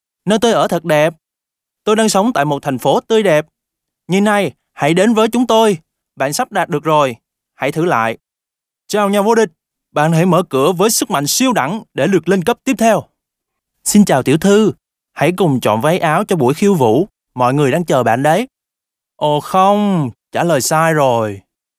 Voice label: Male Vietnamese Toys

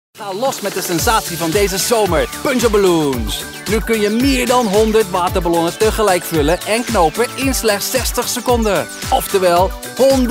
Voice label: NL male TVC

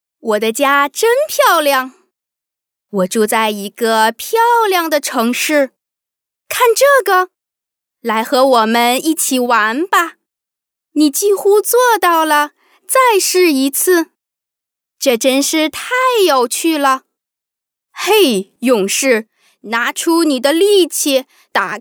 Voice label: Toys Chinese Mandarin Female

